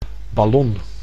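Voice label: "ballon", Dutch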